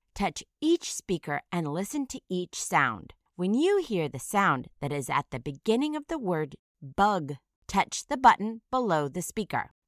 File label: "bug", initial phoneme isolation